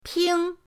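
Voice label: "ping", Mandarin pinyin, first tone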